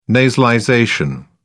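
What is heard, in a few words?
"nasalization", British English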